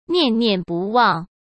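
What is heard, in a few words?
"念念不忘", Mandarin Chinese; hsk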